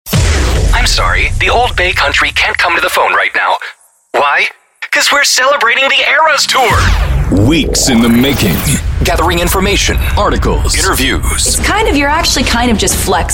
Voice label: Male Voice Over Radio Imaging; TAY BAY